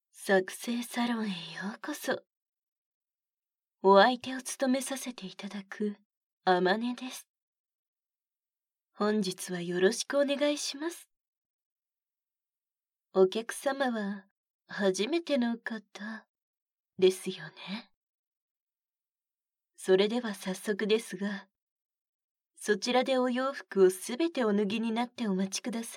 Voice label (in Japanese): 効果音なし, ASMR; はじめて手コキコース